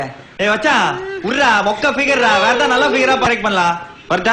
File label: Tamil Comedy